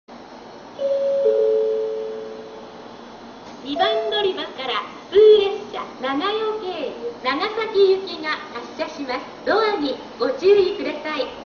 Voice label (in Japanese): 2番のりば発車放送; ハウステンボス; 普通・長与経由 長崎行き